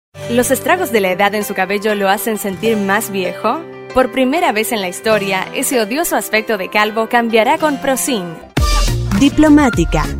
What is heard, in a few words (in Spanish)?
NEUTRO, Mujer